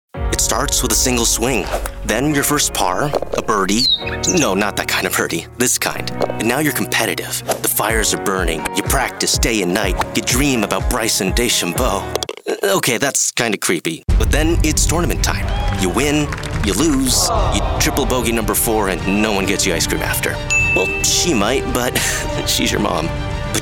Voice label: Commercial